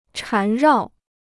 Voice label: "缠绕", Chinese